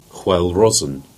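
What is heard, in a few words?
"Wheal Rose", Cornish; place-names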